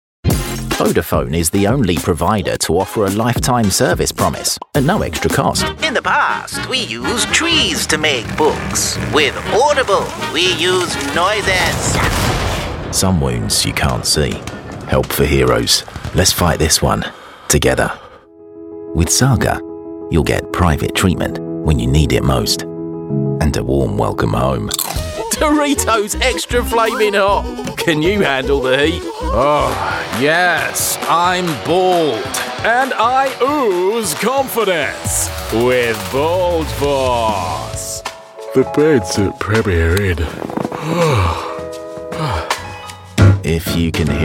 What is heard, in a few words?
commercial